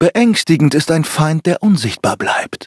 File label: loading, de; Cookie Run